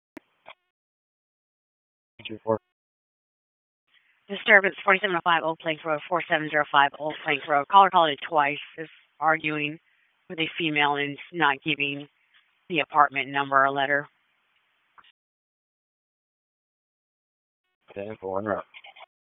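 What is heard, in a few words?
Police Dispatch; Raleigh; Northeast District; wake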